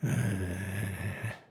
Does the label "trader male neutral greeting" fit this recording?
Yes